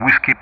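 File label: "Whiskey", English; Ka-50; DCS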